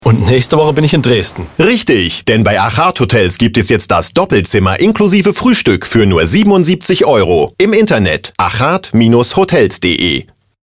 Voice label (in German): Werbung; Achat